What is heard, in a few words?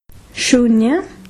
"Zero", hindi